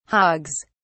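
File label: "hogs", British English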